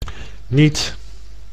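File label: "niet", Dutch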